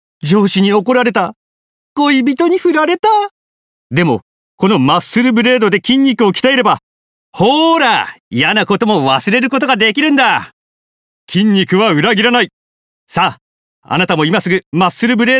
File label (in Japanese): トレーニング用品CM